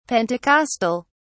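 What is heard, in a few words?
"pentecostal", British English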